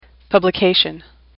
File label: "publication", English; AWL